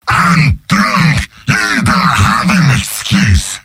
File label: jeers; demoman; mvm